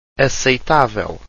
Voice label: "aceitavel", Portuguese